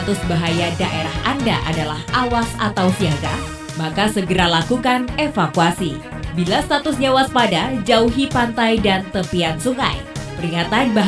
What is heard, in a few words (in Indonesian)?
Radio Adlibs Tanggap Suara; tsunami-kit; materi penyadaran